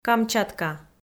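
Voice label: "Камчатка", Komi, female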